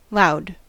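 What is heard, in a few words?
"loud", US English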